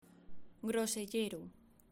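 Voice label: "grosellero", Spanish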